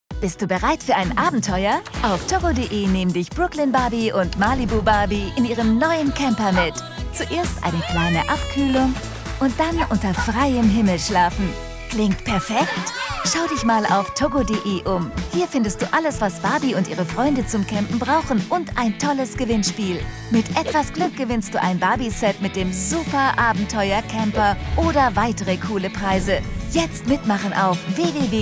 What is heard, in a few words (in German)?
Werbung TV Camping; Barbie